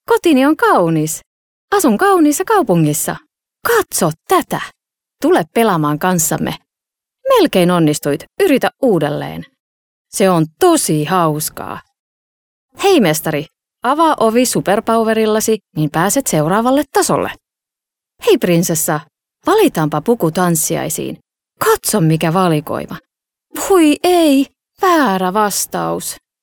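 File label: Finnish female children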